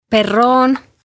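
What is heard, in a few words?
"perroon", Estonian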